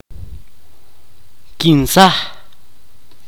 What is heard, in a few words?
"matar", mopan